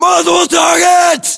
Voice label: threat infantry multiple, battlechatter, English, US